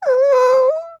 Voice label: worried